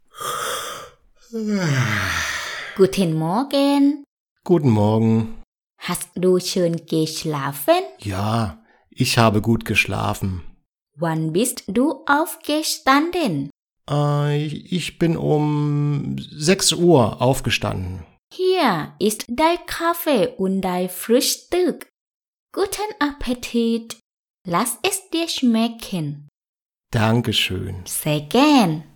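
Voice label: guten morgen, German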